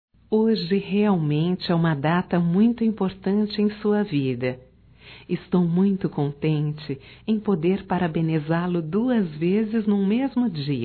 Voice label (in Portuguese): Dia dos Pais Aniversariante Feminino